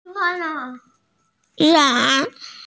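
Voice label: "ran2", Mandarin Chinese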